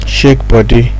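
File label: "shake body", Nigerian Pidgin, male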